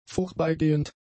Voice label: "vorbeigehend", German; adjectives

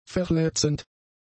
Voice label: "verletzend", German; adjectives

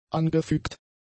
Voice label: "angefügt", German; adjectives

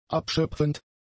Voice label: "abschöpfend", German; adjectives

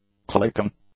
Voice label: "clicking", English; nouns

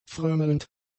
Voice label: "frömmelnd", German; adjectives

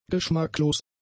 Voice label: "geschmacklos", German; adjectives

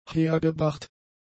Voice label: "hergebracht", German; adjectives

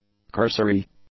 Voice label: "cursory", English; adjectives

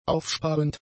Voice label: "aufsparend", German; adjectives

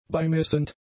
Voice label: "beimessend", German; adjectives

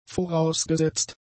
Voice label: "vorausgesetzt", German; adjectives

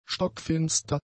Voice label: "stockfinster", German; adjectives

